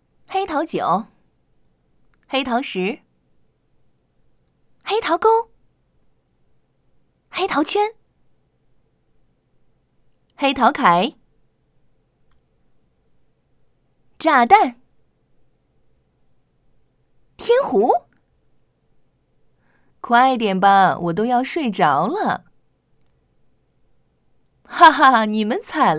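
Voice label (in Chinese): 棋牌试音配音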